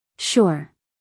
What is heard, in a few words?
"sure", us female; everyone-can-use-english